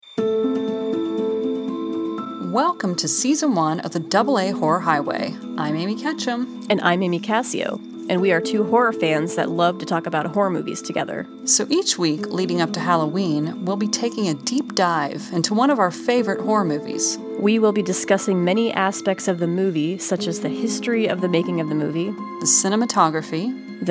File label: Trailer; Double A Horror Highway